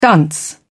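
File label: "dunce", British English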